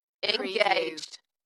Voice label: UK female "Engaged" Dalekness; beanstalk audio